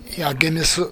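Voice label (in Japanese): "yagimisu", 南山形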